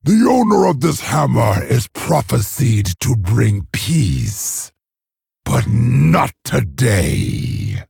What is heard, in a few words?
Male Orc; Orgrim; Uther